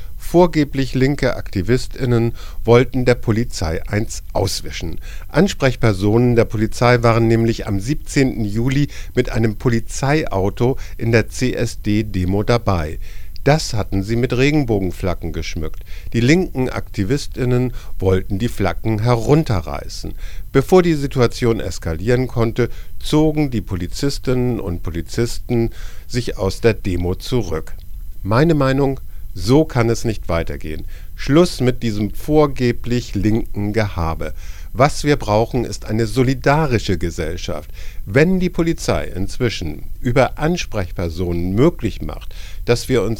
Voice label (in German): Kommentar mit Jingle; Frankfurt Polizei